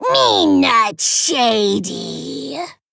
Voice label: Female Geist Play